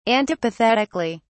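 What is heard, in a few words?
"antipathetically", British English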